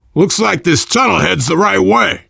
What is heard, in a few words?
world farmhouse, voice, biker